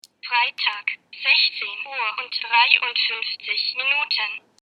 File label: Talks German